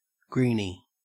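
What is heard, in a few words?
"greenie", Australian English; pronunciations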